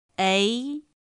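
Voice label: "éi", Mandarin Chinese; pinyin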